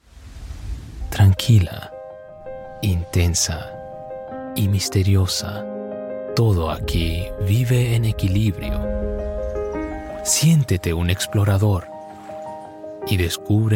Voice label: spanish latin american promos